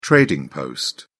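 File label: "trading post", British English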